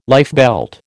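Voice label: "lifebelt", American English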